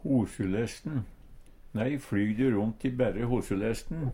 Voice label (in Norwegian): hosulesten, Numedalsmål; strømpelesten; Nei, flyg du ront i børre hosulessen?